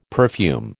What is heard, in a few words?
"perfume", American